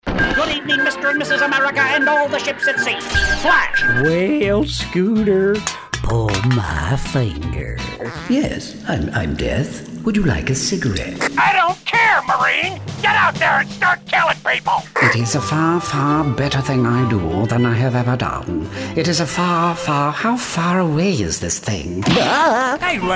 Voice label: animation, voiceover; Animation Demo